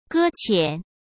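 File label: "搁浅", Mandarin Chinese; hsk; chinese flashcards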